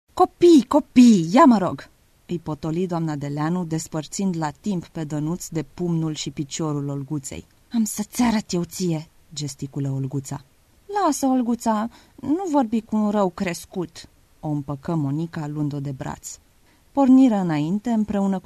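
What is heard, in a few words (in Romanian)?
Romanian female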